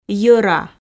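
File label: "йӧра", Komi, female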